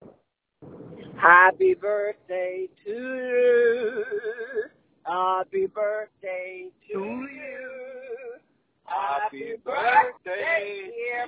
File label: voicemail